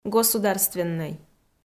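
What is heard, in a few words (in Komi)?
"государственнӧй", Komi, female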